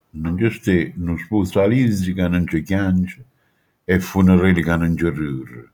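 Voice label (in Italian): "funerale", Manfredonia dialect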